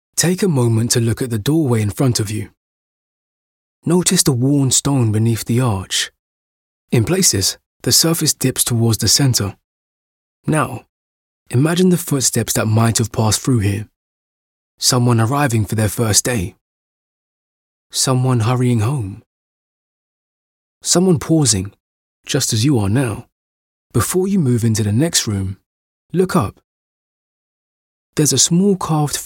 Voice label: audio tour